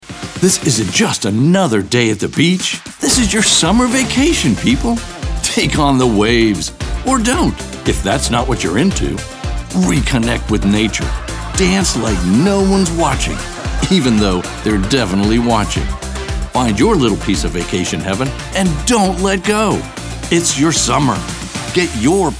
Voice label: Recycling anti-announcer, concerned, confident, conversational, cool, father, grandfather, mature, middle; Pacific Beach